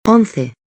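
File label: "once", Spanish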